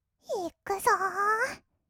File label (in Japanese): "いくぞ", kawaii voice; ASMR; 色々なワンショット